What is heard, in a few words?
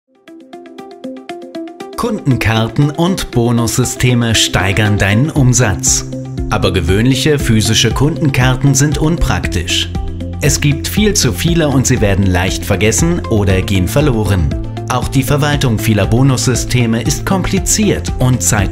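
German, male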